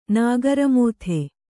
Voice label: "ನಾಗರಮೋಥೆ", Kannada

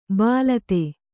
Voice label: "ಬಾಲತೆ", Kannada

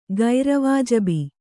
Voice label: "ಗೈರವಾಜಬಿ", Kannada; gaira vājabi

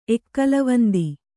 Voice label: "ಎಕ್ಕಲವಂದಿ", kannada

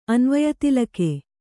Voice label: "ಅನ್ವಯತಿಲಕ", Kannada

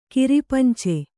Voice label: "ಕಿರಿಪಂಚೆ", kannada

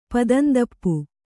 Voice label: "ಪದಂದಪ್ಪು", Kannada

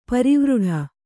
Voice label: "ಪರಿವೃಢ", kannada

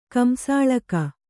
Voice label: "ಕಂಸಾಳಕ", Kannada

